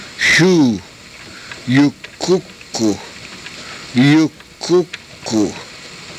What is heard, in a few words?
"yukukuh", karuk